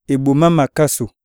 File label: clap, iyasa